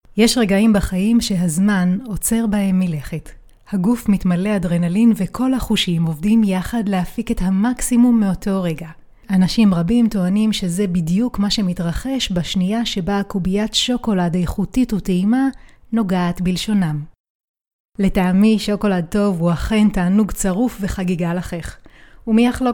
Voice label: hebrew e-learning